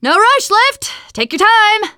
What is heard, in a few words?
finale waiting, teen girl